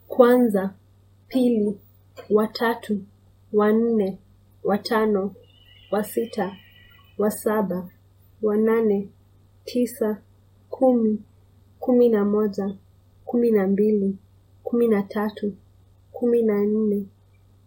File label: numbers swahili